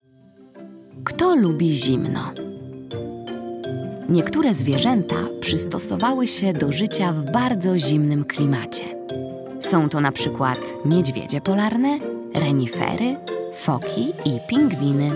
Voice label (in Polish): narracja; czasopismo mis; zima